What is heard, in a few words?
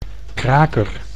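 "kraker", Dutch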